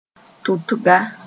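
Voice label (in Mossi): "tʋtga", Mooré; pregnant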